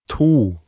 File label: "to" Norwegian